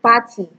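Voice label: "party", Nigerian Pidgin, female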